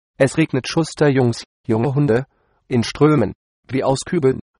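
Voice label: rain, German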